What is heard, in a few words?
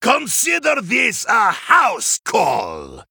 Male Human Play